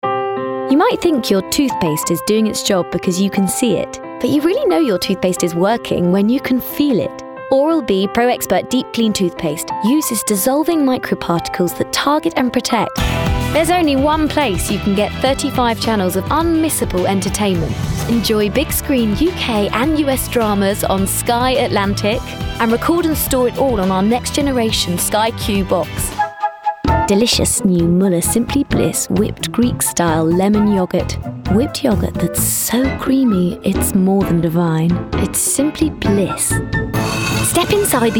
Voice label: Commercial Voice reel